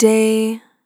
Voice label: "Short Day", vocal samples; Words

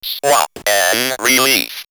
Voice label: Robot Swap & Relief, robot vox; X-Static Goldmine

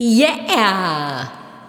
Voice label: "Yeah" Spring Rev, Swingin Chiks Spoken

